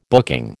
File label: "booking", American